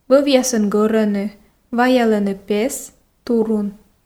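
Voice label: вӧвъясӧн гӧрӧны ваялӧны пес турун, female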